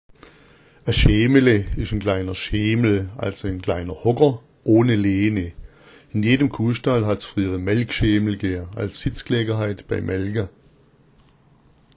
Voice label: "schemele", schwaebisch; Ein Schemele ist ein kleiner Schemel, ein kleiner Hocker.

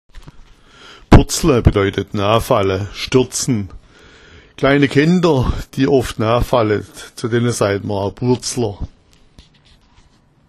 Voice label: burzla, schwaebisch; burzla bedeutet hinfallen, stürzen; Kleine Kinder, die häufig stürzen, werden auch Burzler genannt

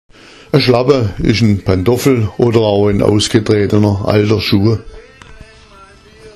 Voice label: "schlappa schuh", schwaebisch; Ein Schlappa ist ein Pantoffel oder auch ein ausgetretener alter Schuh.

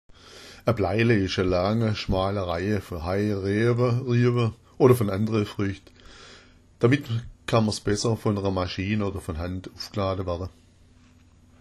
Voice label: "plaile", schwaebisch

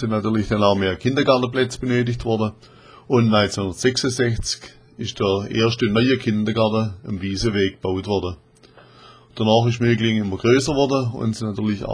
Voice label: schule, schwaebisch

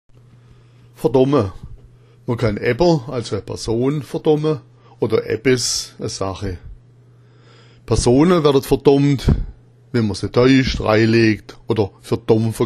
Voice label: verdomma, schwaebisch; Man kann ebber (Person) verdomma oder ebbes (Sache) verdomma.

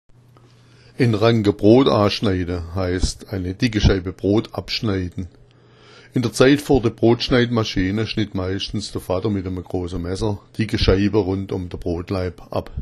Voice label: "ranga", schwaebisch; einen Ranga Brot abschneiden, heißt eine dicke Scheibe Brot abschneiden.

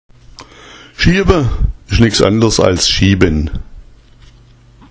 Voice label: schieaba, schwaebisch; Schieaba ist nichts anderes als schieben.

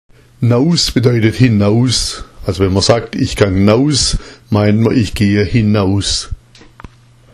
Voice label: naus, schwaebisch; naus bedeutet hinaus – i gang naus = ich gehe hinaus

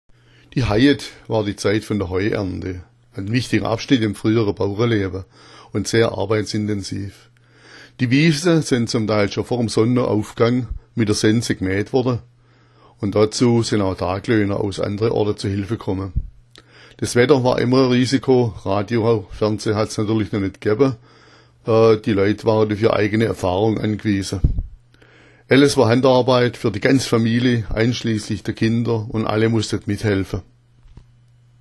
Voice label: haiet, schwaebisch

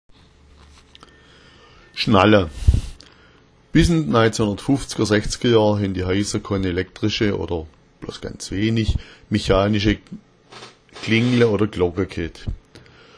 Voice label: schnalla, schwaebisch

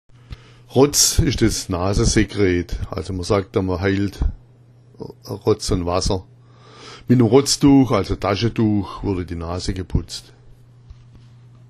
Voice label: "rotz", schwaebisch; Rotz ist das Nasensekret, mit dem Rotztuch/Taschentuch wurde die Nase geputzt

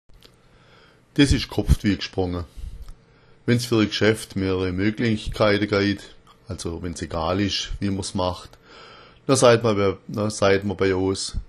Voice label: ghopft, schwaebisch

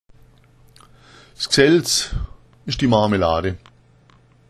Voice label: gsaelz, schwaebisch

